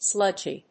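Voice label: "sludgy", English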